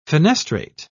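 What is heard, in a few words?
"fenestrate", English, British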